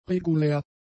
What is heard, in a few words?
"regulär", German; adjectives